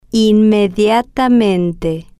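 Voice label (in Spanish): "inmediatamente", Spanish, female, slow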